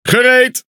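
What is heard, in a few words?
NL Speech Pilots Fighter Ready, Dutch; World Of Warships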